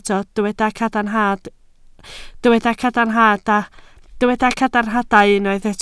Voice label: "cadarnhadau", Welsh

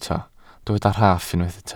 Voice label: "rhaff", Welsh

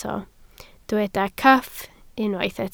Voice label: "cyff", Welsh

